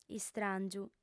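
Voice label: "istràngiu", female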